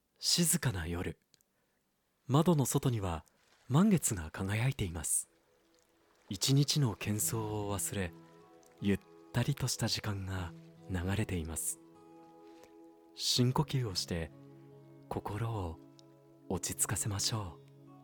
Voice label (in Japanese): 落ち着いた感じ